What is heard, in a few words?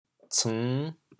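"ceng5", Teochew, chaoyang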